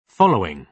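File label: "following", British English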